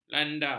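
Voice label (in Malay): "landa", Malay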